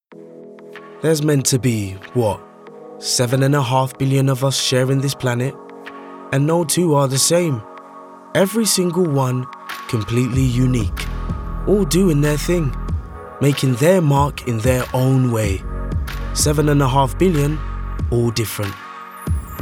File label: english commercial; o2